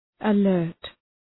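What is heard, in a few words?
"alert", English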